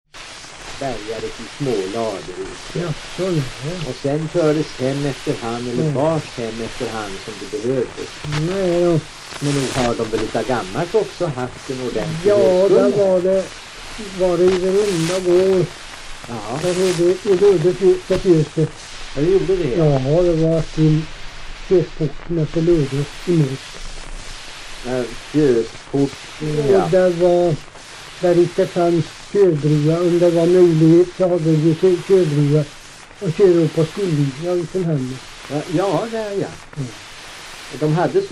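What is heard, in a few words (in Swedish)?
Hede Härjedalen, svenska sagor dialekter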